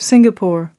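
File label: "Singapore", English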